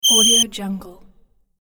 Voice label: cartoon character whisper